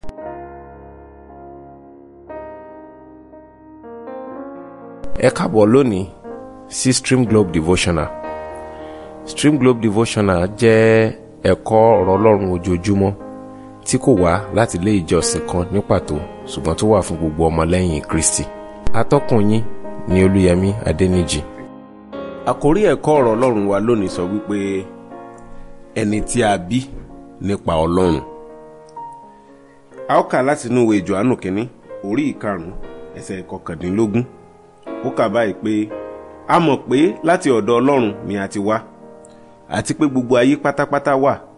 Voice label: Devotional Audio Yoruba